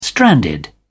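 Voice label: "stranded", British English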